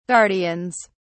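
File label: "guardians", British English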